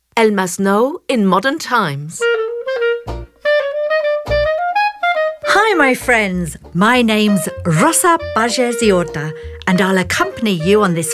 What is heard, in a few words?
audioguia english